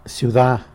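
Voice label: "city", Tlacolula Zapotec; syuda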